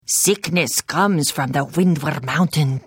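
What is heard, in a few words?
Hello, Khajiit female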